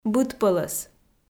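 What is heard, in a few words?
"быдпӧлӧс", Komi, female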